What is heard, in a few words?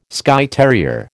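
"Skye terrier", American English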